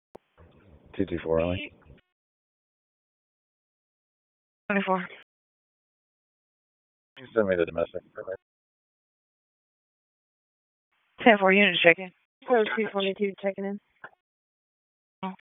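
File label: Police Northeast District Dispatch, p25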